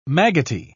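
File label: "maggoty", British English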